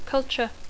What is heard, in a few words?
"culture", English